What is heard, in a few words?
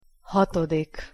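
"hatodik", Hungarian pronunciation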